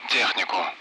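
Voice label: Speech, Russian; Allied Flight; DCS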